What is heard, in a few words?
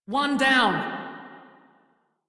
crew enemy defeat, female; Dialog; Warframe; Railjack Crew; Steel Meridian